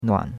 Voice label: "nuan3", chinois; Cours-de-Chinois

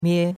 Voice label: "mie1", chinois; Cours-de-Chinois

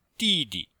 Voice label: "di4di5", chinois; Cours de Chinois

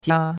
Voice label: "jia1", chinois; Cours de Chinois

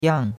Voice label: "yang4", chinois; Cours-de-Chinois

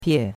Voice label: "pie4", chinois; Cours-de-Chinois

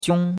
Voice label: "jiong1", chinois; Cours-de-Chinois

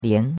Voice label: "lian2", chinois; Cours de Chinois

